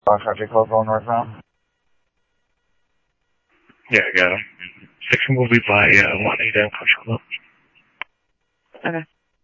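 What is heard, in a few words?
Simulcast police department, scanner audio trunk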